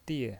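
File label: "die" Mandarin Chinese, fourth tone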